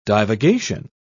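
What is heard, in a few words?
"divagation", British English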